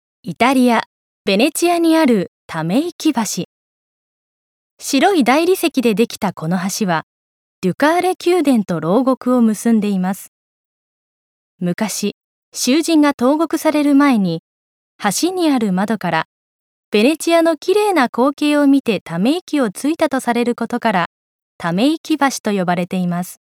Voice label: 紀行ナレ

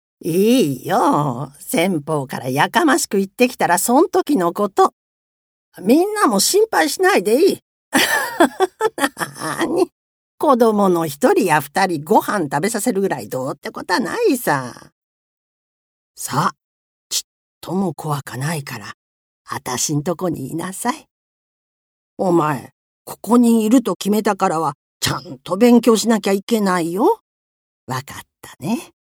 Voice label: 太っ腹おかみさん

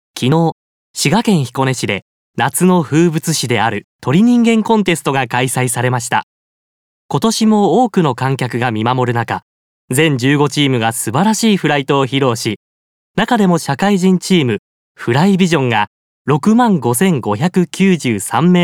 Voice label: ニュース

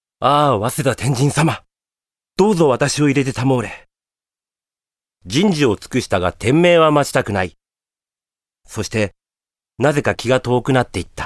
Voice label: 朗読

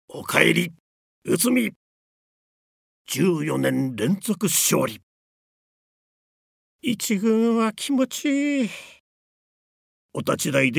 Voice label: ドキュメンタリー

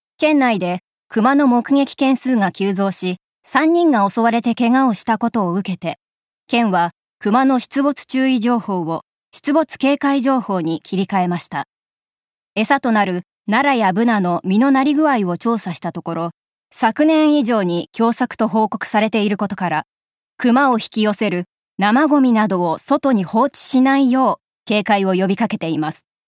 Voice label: ニュース

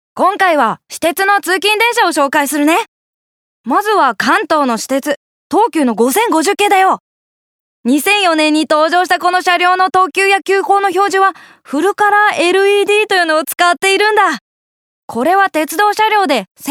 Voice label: 少年

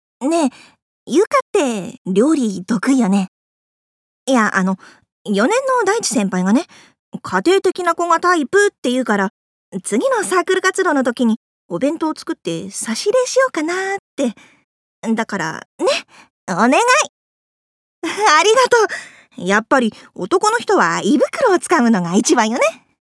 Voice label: 先輩の気を引きたい大学生

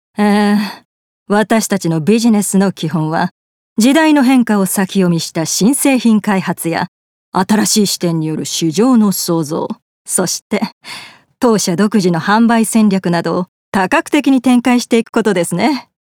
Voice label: キャリアウーマン

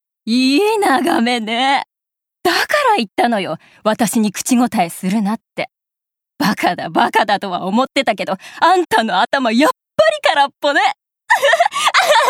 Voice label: サイコパス

